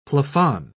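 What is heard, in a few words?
"plafond", British English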